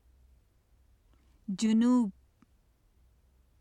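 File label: "junub", Urdu; جنوب; [ʤunuːb] (junūb); 南（男）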